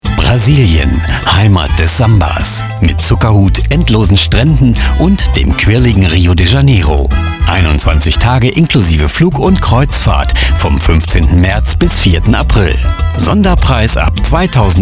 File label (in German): Tourismus Radiowerbung